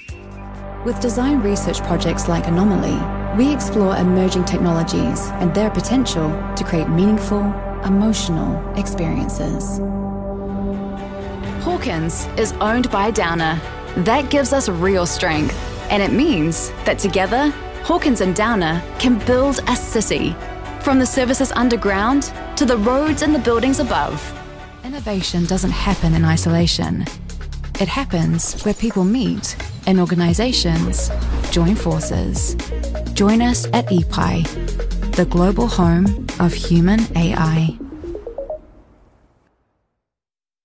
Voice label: english international corporate videos, new zealand english